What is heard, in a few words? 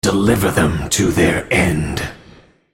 attack, en US; Varus